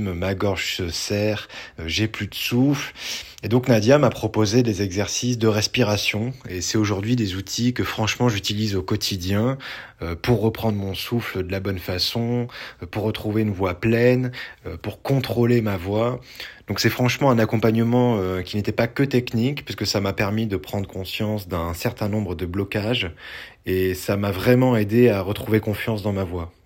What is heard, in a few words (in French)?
temoignage journaliste; coach voix parlee; paris